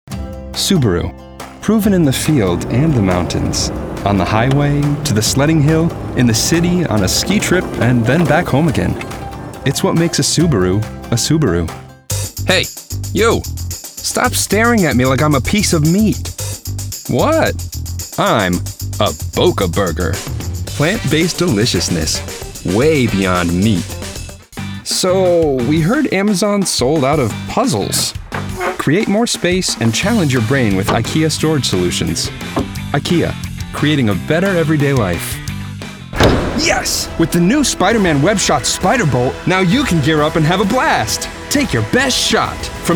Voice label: Full Commercial